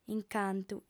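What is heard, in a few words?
"incantu", female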